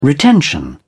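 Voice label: "retention", English, British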